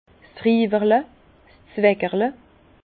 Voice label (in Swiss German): "riwerle", Bas Rhin; vin; robinet de dégustation